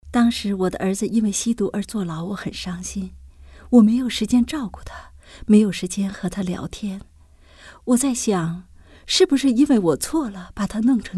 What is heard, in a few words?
putonghua; never too late